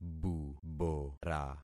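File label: "bubora", concatenated syllables; Affixing experiment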